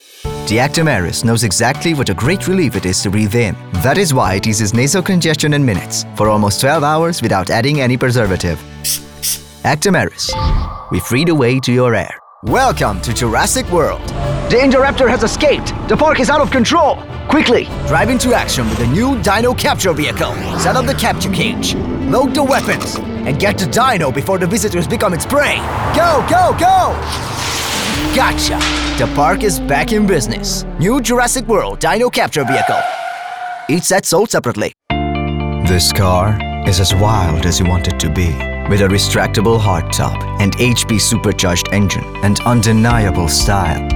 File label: Commercial Voice Over